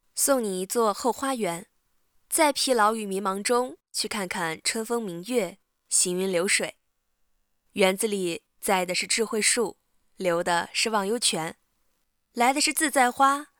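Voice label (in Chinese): 美文配音试音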